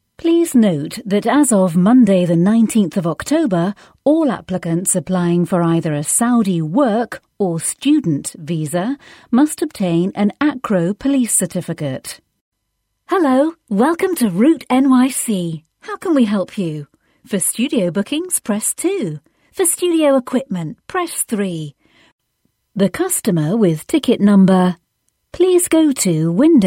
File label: telephone